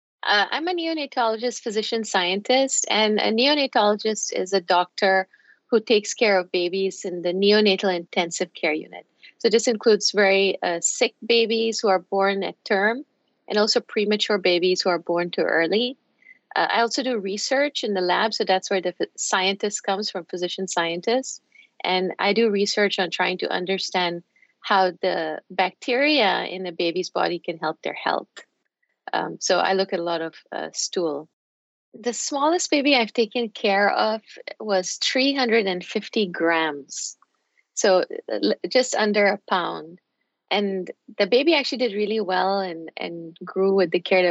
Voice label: Voice Over